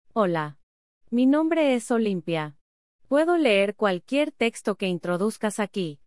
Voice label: spanish